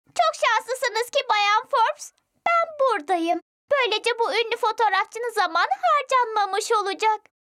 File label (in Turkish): kız çocuk